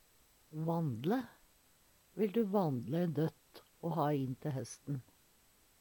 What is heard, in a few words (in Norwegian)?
"vandle", Numedalsmål; Vil du vandle ein døtt o ha inn te hesten?